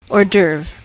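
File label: "hors d oeuvre", US English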